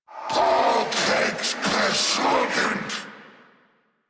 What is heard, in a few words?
Surprised; Dialog; en; Warframe; Zariman; Zariman Wraith